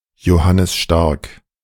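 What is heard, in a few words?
"Johannes Stark", German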